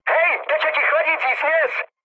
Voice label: damaged water, speech cze, mood med; War Thunder

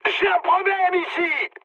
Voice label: aircraft damaged mood high, speech fr

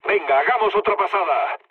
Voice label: bomb failure, mood low, speech; War Thunder

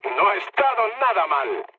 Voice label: trick, mood low, speech; War Thunder

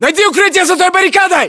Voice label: order cover barricade, ru

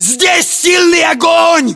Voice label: inform suppressed generic, battlechatter ru

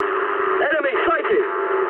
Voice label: eng enemy detected mood med, speech eng

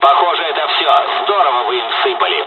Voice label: dialogs russian; War Thunder

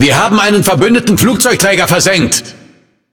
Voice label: Speech Destroy Ally Aircarrier, DE; World Of Warships

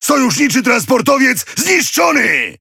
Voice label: CONVOY ALLY TRANSP SUNK, Languages PL; World Of Warships

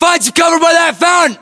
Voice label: order cover fountain, US; localized english; battlechatter

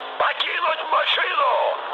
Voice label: bailout, mood high, speech ru; War Thunder

